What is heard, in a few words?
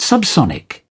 "subsonic", British